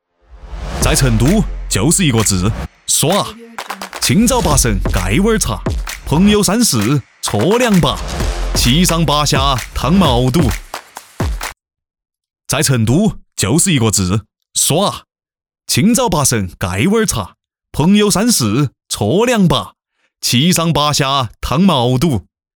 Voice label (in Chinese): 成都方言活力广告